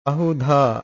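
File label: "bahudhaa", Pali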